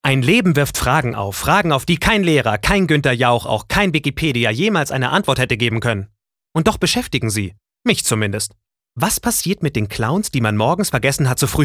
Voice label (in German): Schauspiel Energisch